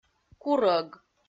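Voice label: "курӧг", Komi, female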